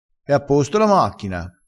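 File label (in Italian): "sciupa", dialettale, crespinese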